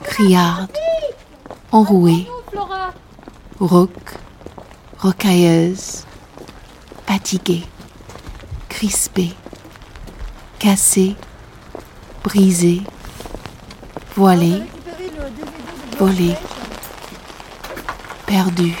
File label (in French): interieur voix